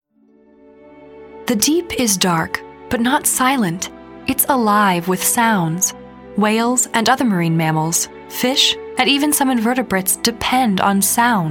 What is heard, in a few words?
Narr